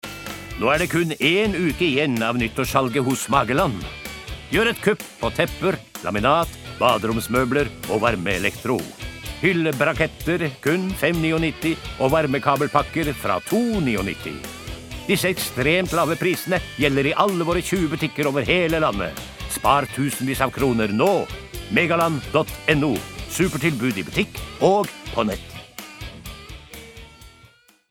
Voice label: no hard sell, norsk mann

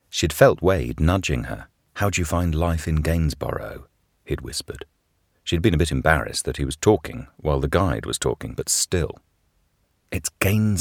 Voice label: uk audiobook fiction

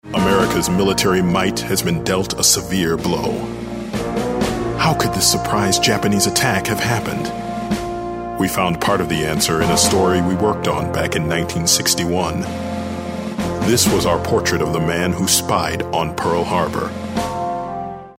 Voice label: us narration drama

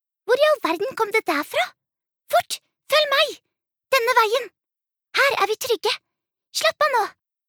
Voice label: no dub character

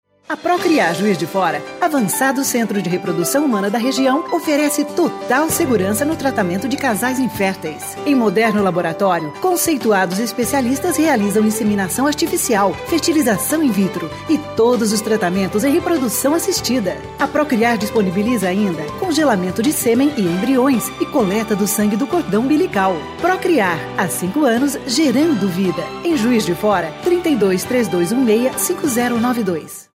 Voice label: br portuguese commercial

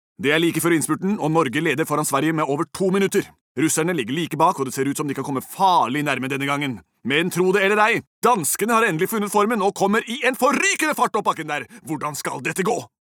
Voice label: no dub commentator

